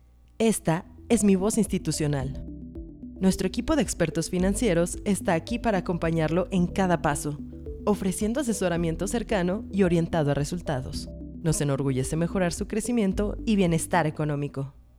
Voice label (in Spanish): Institucional